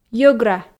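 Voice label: "йӧгра", Komi, female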